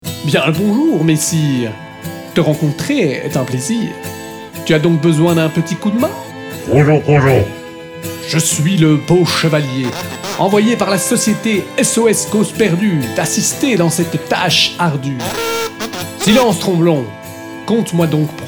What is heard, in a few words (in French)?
NARRATION